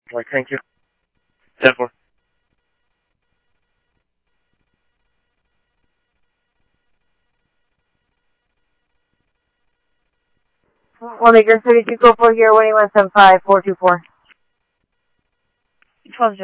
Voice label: PD Police, scanner audio

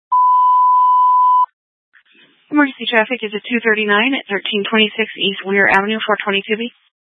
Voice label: Police Dispatch

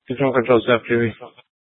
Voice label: Court Security Dispatch, scanner

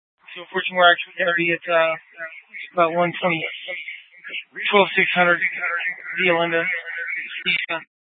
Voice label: Dispatch; Scottsdale

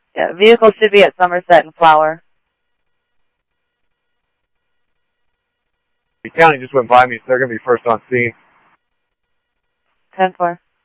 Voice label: Mesa Simulcast, MESA PD, scanner audio

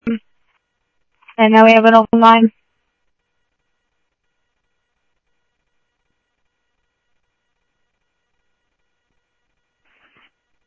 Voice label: Tempe Police, scanner

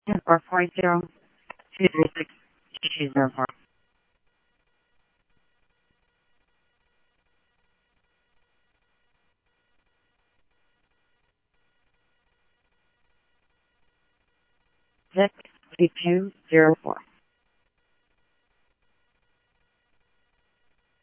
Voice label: Police, scanner audio trunk; H-Scottsdale

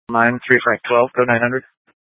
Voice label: Scottsdale Police, Simulcast

